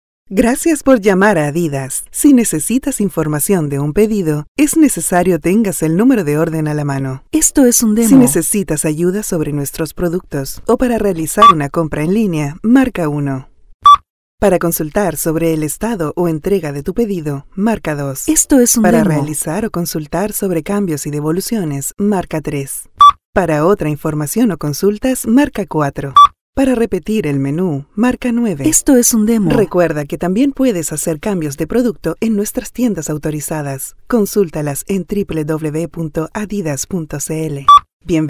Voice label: IVR, Latin Spanish Neutral Female, Phone Greetings, On Hold, Ivr Chile; ADIDAS